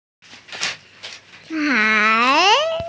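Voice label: "chai2", Mandarin Chinese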